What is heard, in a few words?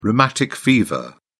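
"rheumatic fever", British English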